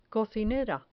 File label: "cocinera", Spanish